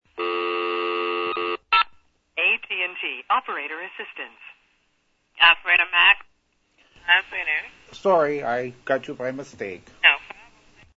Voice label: operator, telephone sounds; other long distance company operator services platforms recordings